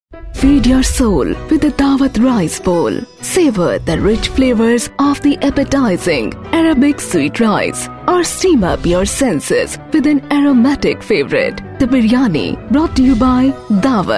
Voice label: female voice, Eng; Rice